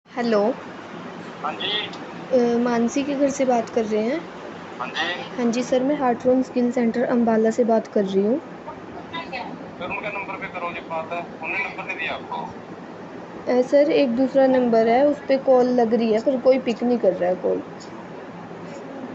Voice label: call recording